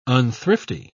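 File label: "unthrifty", British English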